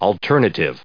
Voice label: "alternative", us